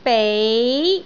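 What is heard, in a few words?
"bei2", Cantonese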